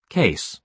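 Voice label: "case" British English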